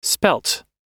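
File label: "spelt", American English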